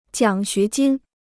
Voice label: "奖学金", Chinese

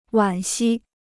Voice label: "惋惜", Chinese

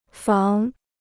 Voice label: "防", Chinese

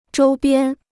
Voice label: "周边", Chinese

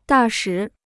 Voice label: "大使", Chinese

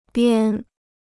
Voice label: "边", Chinese

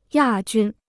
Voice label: "亚军", Chinese

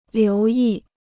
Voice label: "留意", Chinese

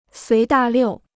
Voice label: "随大溜", Chinese

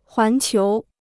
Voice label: "环球", Chinese

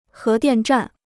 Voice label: "核电站", Chinese

